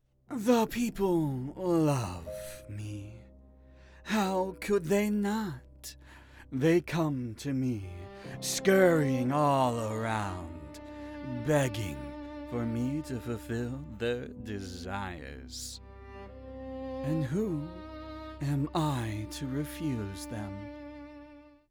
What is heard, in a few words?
Anime villain